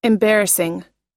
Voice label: "embarrassing", us